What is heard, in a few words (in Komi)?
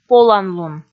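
"поланлун", Komi, female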